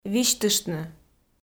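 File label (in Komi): "висьтыштны", Komi, female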